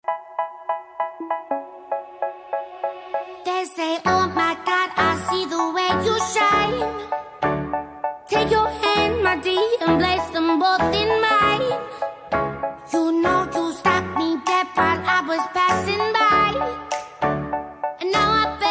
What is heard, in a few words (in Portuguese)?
esposa, dia das mulheres para esposa voz masculina; linda